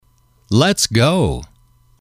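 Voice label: "let's go", English, male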